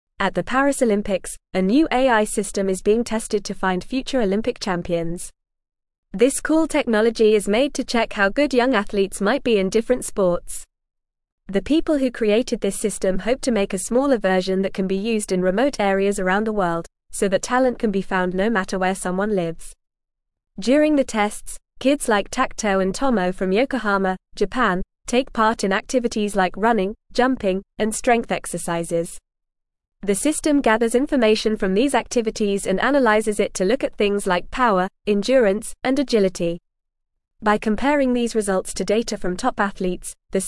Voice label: English Upper Intermediate FAST Reading; AI System Revolutionizes Talent Identification; English Newsroom